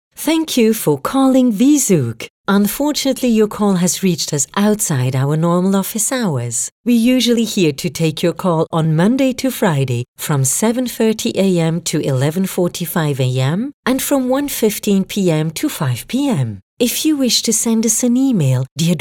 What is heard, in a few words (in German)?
Telefonansage frisch English